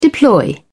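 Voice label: "deploy", English, British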